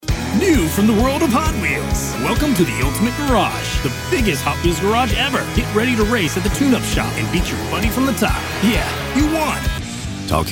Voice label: 外籍英男-夜店风-激情

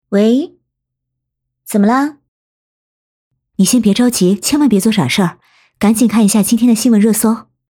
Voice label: 女声角色

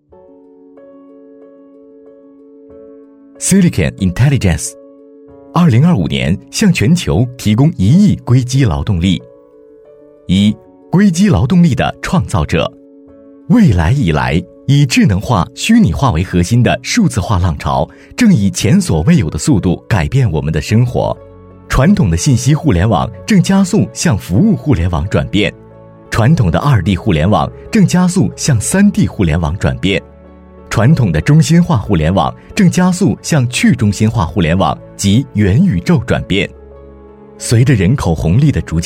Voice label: 男 专题; 硅基劳动力

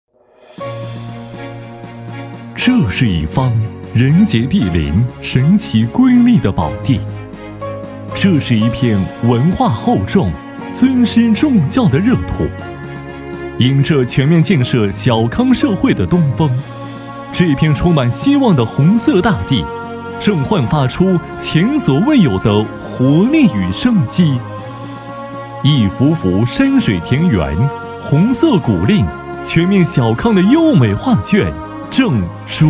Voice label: 男, 汇报; 聚力教育发展

